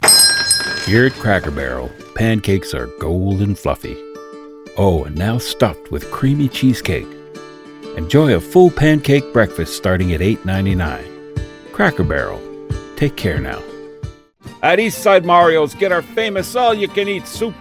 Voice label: Commercial Voice Over

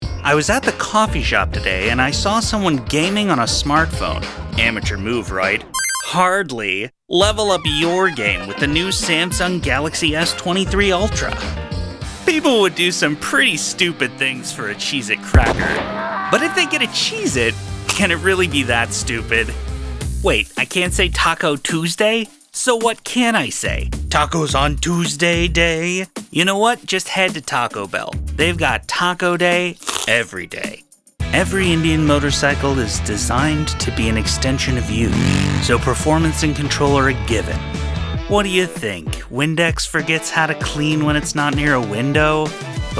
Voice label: Commercial

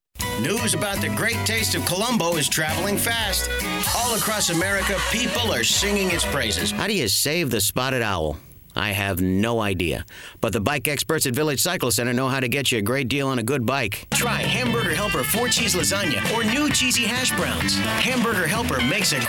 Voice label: Vintage Commercial

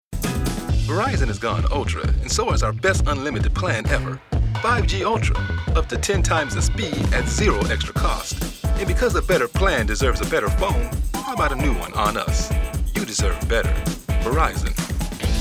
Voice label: Upbeat Guy Next Door

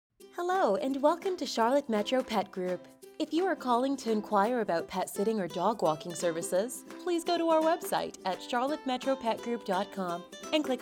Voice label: voicemail; Charlotte Metro Pet Group

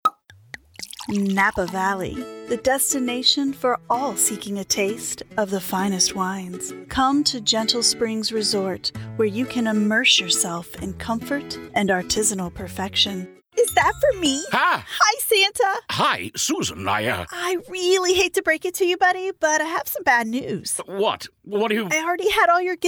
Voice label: Commercial

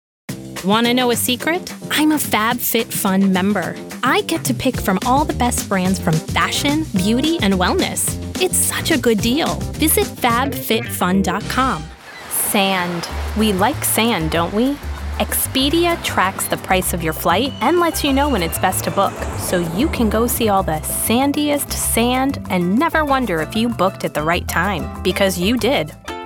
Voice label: Commercial Demo

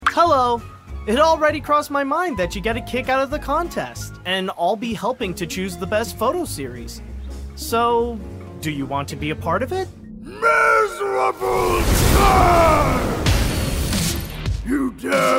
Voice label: Animation

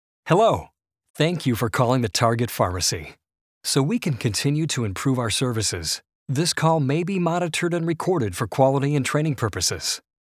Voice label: IVR on hold messaging

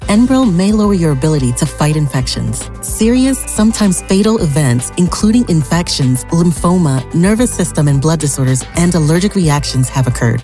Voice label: Pharma Commercial

